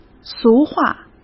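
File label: "俗话", Mandarin Chinese; chinese-flashcards; hsk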